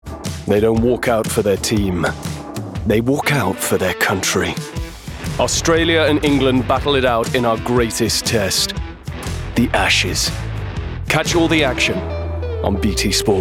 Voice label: promo